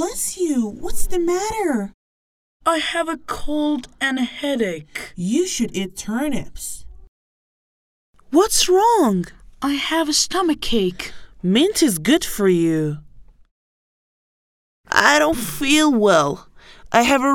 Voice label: conversation